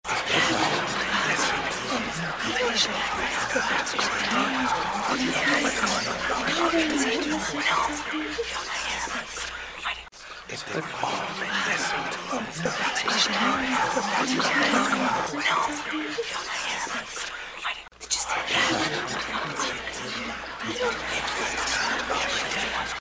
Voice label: whisper; Walls, Whispering; Static Displays